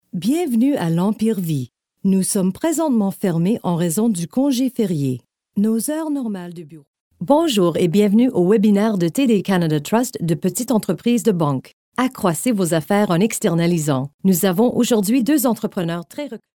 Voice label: french female voiceover locutora canadiense; french canadian voice

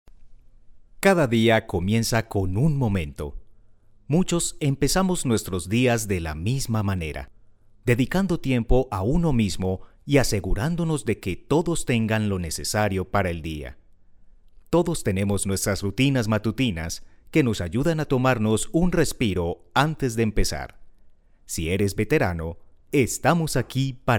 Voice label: locutor colombia colombian voiceover; locutores colombianos

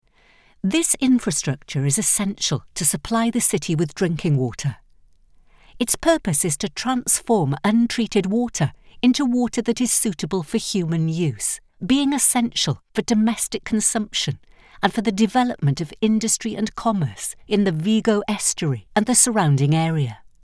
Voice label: locutora english voiceover; locutor ingles britanico

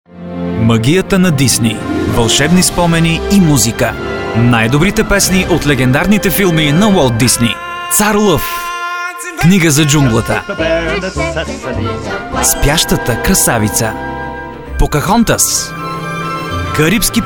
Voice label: bulgarian male voiceover locutor bulgaro

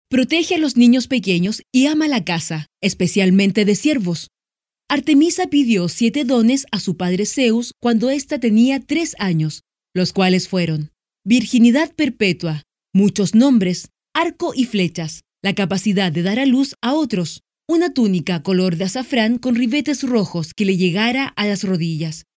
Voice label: locutora chilena chilean voiceover

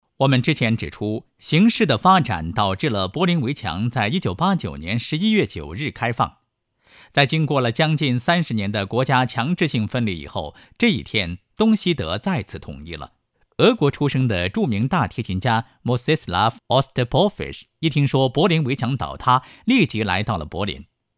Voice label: chinese male locutor chino voiceover; locutor chino mandarín

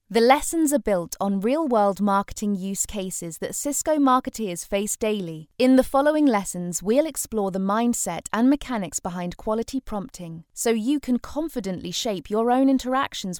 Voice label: english voiceover locutora inglesa

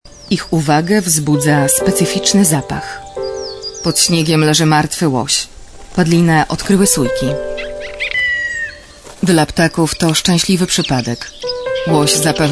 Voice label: polish female voiceover; locutora polaca

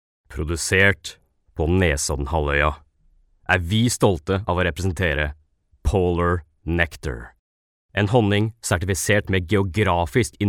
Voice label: Norwegian voice over, Norwegian voice talent

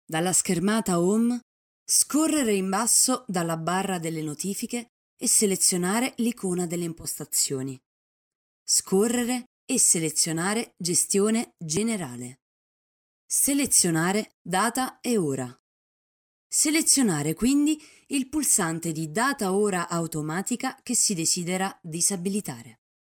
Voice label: locutora italiana, Italian voiceover; locutora de Italia

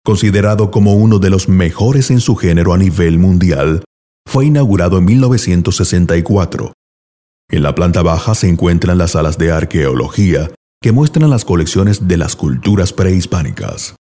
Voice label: venezuelan voiceover locutor venezuela

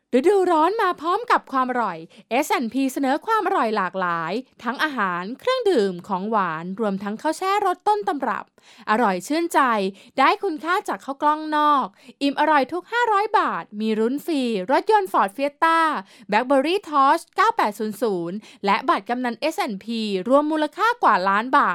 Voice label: thai female voiceover locutora tailandesa

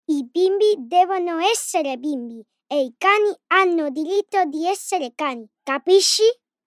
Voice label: locutora infantil italia italian child voiceover

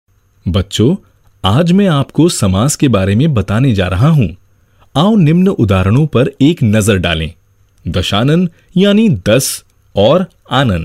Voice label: locutor hindu india hindi voiceover; locutor de la India

